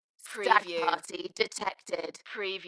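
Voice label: UK female, DJ vocals; Dalekness; beanstalk-audio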